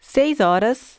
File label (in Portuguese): portuguese 1 hour; samsung YP-U5; Audio User Interface